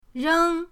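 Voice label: "reng1", Mandarin Chinese, first tone; pinyindct-open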